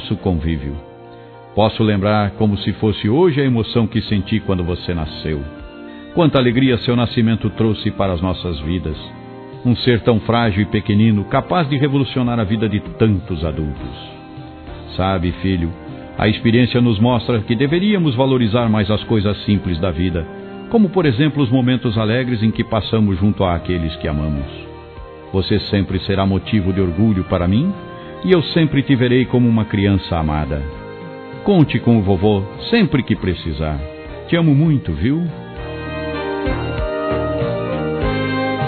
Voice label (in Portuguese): Aniversário Neto Masculino